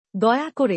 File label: "Please", Bangla; Basic Greetings and Courtesy